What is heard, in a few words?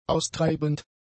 "austreibend", German; adjectives